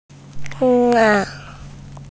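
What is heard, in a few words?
"la4", Mandarin Chinese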